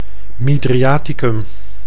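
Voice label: "mydriaticum", Dutch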